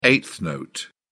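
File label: "eighth note", British English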